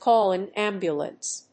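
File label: "call an ambulance", English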